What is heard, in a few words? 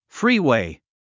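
"freeway", English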